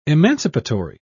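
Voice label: "emancipatory", British English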